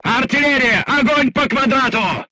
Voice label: voice message art command, crew tanks russian